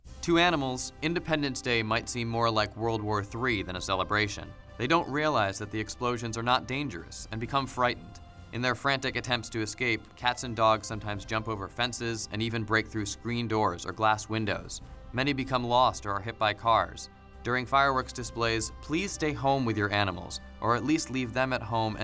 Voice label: fireworks radio PSA